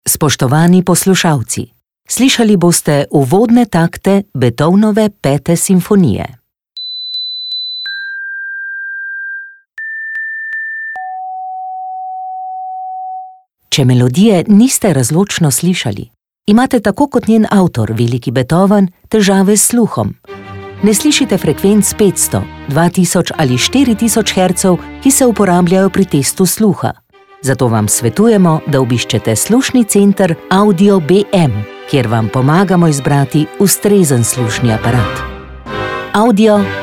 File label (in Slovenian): slusni aparati Radijski oglas; AUDIO BM; Beethoven; Zlata nagrada SOF 2023